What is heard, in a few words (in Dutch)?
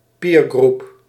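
"peergroup", Dutch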